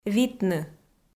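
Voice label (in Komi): "витны", Komi, female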